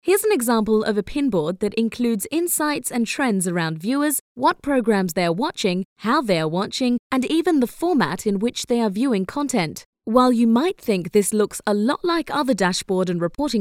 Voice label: British Explainer

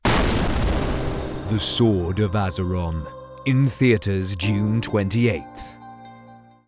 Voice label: Movie Trailer UK

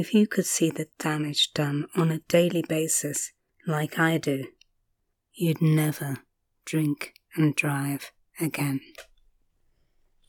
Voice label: Drunk Driving PSA; TVR

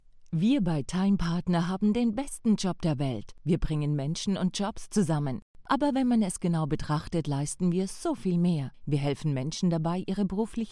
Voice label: German corporate

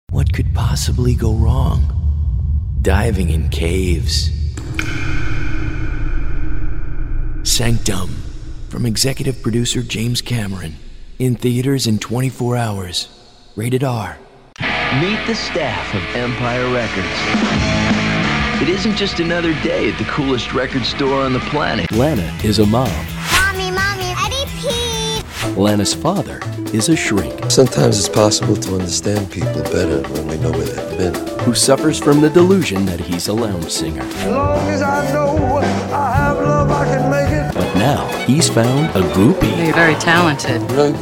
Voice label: Movie Trailers

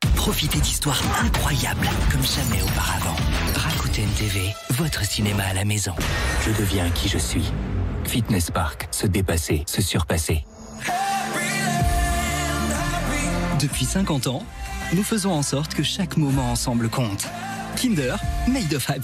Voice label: French voice over Commercial

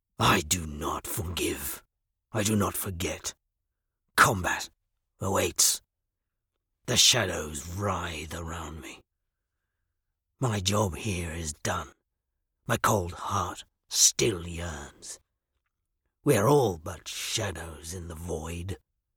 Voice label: uk game

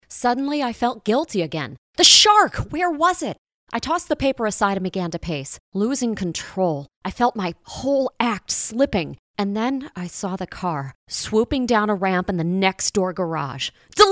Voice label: Audiobook

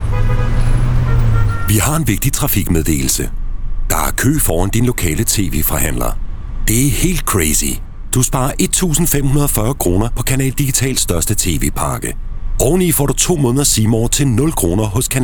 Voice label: Radio Spot; Canal Digital

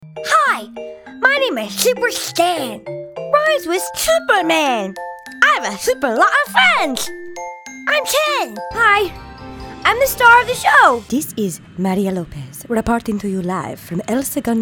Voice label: Animation

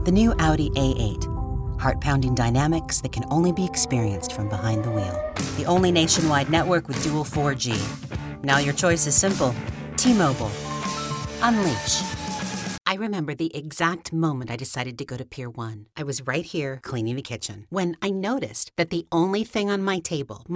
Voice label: TV Spots

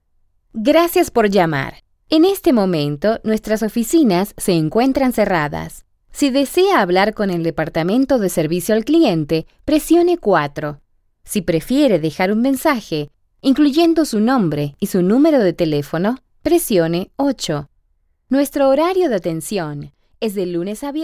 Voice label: IVR Spanish

